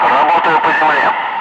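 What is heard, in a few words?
Russian speech, Ka-50 Wingman; Messages engaging movers; DCS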